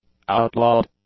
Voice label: "outlawed", English; adjectives